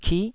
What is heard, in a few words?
"kih9", taiwanese syllables